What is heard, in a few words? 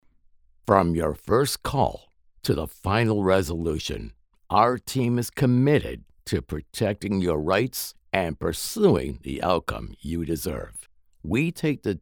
Radio ad Legal